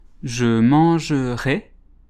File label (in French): "je mangerai", French